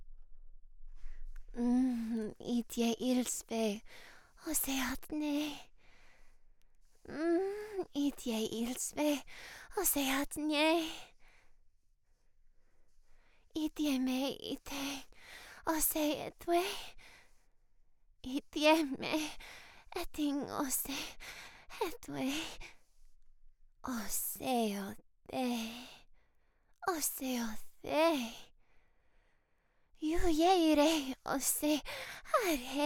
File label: Jibberish